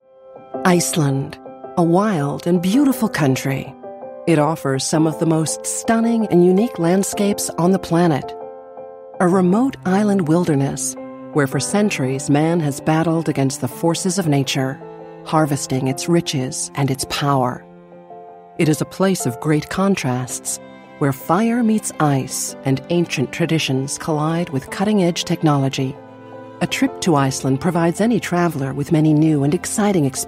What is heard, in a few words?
Documentary